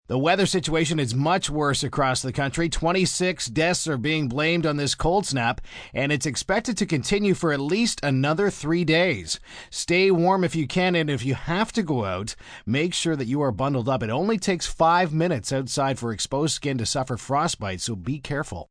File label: Cold Snap M, Broadcast, weather, male weather report, extreme cold health advisory with deaths; extreme cold health advisory with deaths reported, news (human voice speaking)